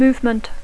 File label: "movement", English